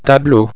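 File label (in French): "tableau", French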